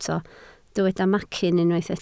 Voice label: "macyn", Welsh